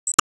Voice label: Emo Reply

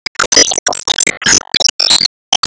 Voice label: Emo Warcry

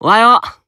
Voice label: Emo Greet

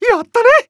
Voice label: Emo Glad

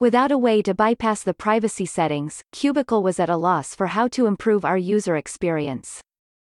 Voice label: Monologue; Avie

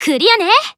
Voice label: Emo Glad

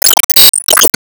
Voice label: Emo Praise

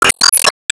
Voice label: Emo Greet; Disney Star Smash; Android, iOS, Mobile

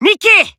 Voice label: Emo Call Name

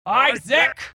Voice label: Mom Vox; Isaac

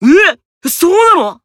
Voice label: Emo Surprise; Disney Star Smash; Android, iOS, Mobile

